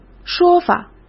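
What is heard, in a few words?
"说法", Mandarin Chinese; chinese-flashcards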